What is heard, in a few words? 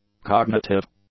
"cognitive", English; adjectives